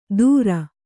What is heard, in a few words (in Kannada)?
"ಧುರ" Kannada